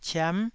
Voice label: "ciam9", taiwanese